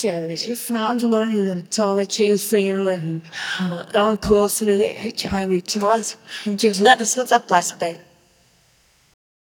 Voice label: an australian barman aski, dialogue

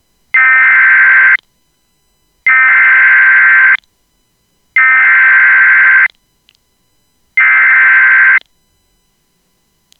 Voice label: clear mayday broadcast

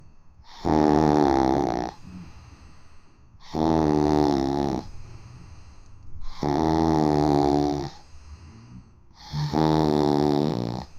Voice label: 5 years old boy; bedroom

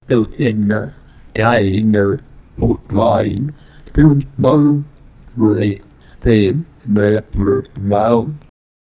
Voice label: a deejay saying one two, counting

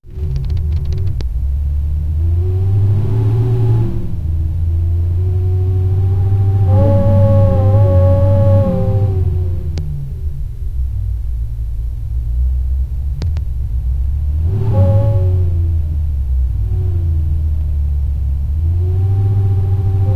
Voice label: soft conversation murmurs; ambience

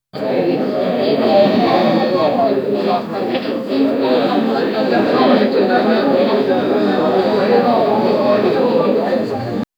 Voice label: people mumbling in a cour

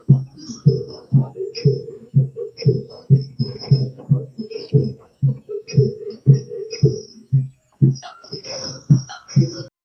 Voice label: people whispering with he, courtroom

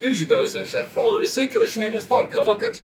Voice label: russian male dialog in ru, space station

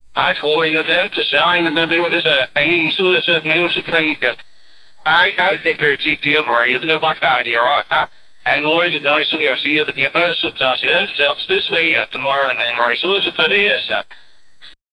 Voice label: breaking news style radio, announcer

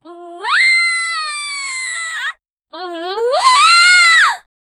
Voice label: create a sound of angry, female voice

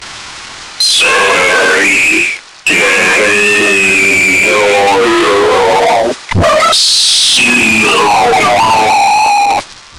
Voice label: distorted voices in paral, parallel dimension

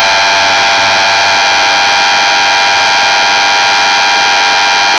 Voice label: professional female voice, voiceover

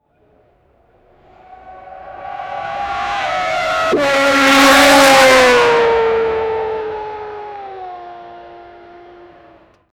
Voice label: tv sports announcer voice; voiceover

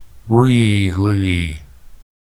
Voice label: a calm reverent sound for; dialogue

